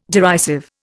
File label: "derisive", Indian